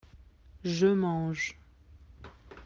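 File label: "manger", French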